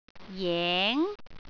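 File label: "jeng2", Cantonese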